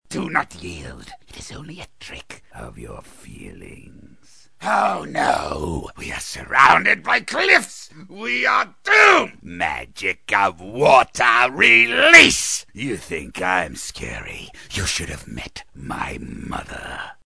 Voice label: Wizard, Evil & Possessed; animation